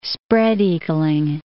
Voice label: "spread-eagling", English